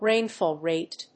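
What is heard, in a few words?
"rainfall rate", English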